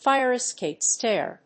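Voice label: "fire escape stair", English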